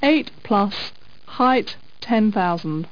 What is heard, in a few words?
woman